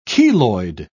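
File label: "keloid", British English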